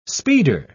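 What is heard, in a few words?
"speeder", English, British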